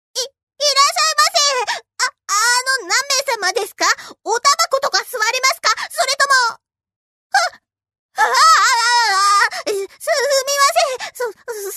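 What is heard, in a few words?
narration female power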